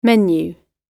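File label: "menu", British English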